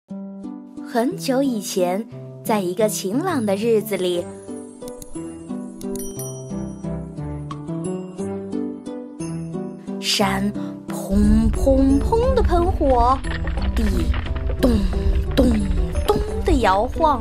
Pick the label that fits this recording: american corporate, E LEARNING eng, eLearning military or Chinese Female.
Chinese Female